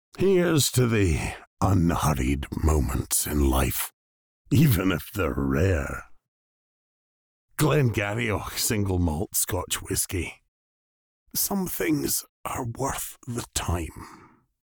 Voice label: male british voice over sales, english british